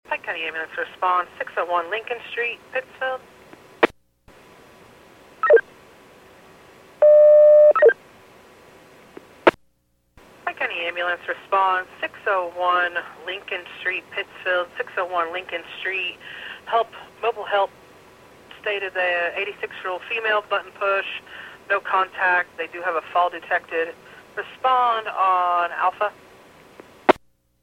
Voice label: PAGING